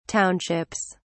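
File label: "townships", British English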